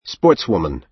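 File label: "sportswoman", American English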